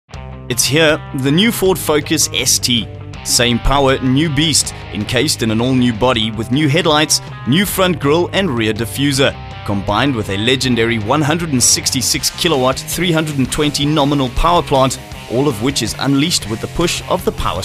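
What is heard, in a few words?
English South African Commercial; GoLocalise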